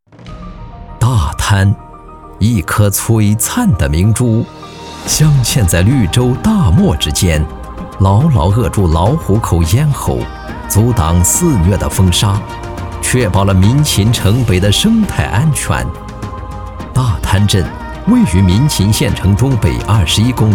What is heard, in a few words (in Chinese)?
男, 宣传片; 美丽大滩